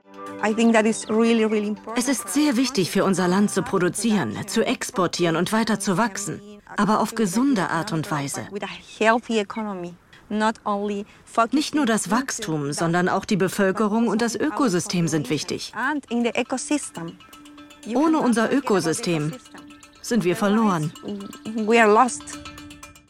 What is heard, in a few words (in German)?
Doku; Rotten